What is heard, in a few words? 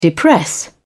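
"depress", English, British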